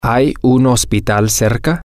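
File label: is there a hospital close by, Spanish, male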